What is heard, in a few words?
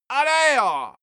male gibberish attack; menschen; schreie